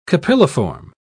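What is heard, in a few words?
"capilliform", British English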